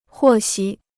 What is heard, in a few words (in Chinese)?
"获悉", Chinese